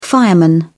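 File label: "fireman", British English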